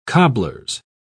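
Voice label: "cobblers", British English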